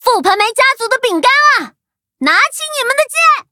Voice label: upgrade, zh-hant

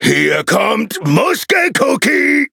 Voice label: loading, de; Cookie Run

